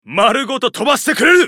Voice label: ウォーロック男 エレメンタルストライフ

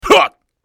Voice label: マスケッティア男 攻撃 短

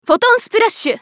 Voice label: ウィザード女 フォトンスプラッシュ

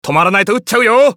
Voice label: マスケッティア男･ヘッドショット; オーシュ

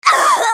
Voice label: ファイター女･被ダメージ

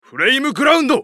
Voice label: ウォーロック男 フレイムグランド

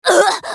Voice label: ファイター女･被ダメージ

